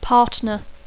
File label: "partner", English